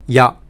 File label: breton yes